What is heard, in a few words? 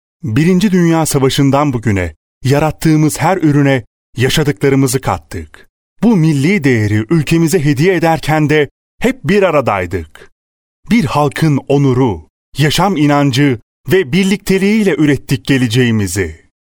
male Turkish